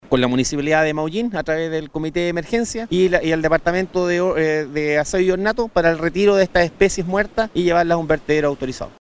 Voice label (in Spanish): cuña dos capitán de puerto radio